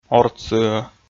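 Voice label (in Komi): "ортсыӧ", Komi, male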